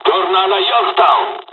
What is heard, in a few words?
mission fly, dialogs italian; cors mission; War Thunder